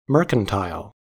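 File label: "mercantile", American English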